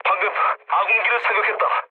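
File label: Korean player killed ally mood low; speech; War Thunder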